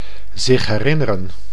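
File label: "zich herinneren", Dutch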